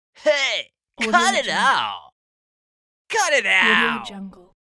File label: male cartoonish cut it out